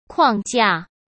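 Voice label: "框架", Mandarin Chinese; hsk; chinese-flashcards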